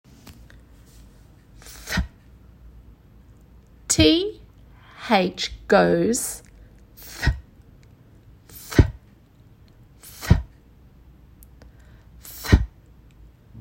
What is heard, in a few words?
th chant, english phonics; voiceless th; School-based Phonics Programme First Term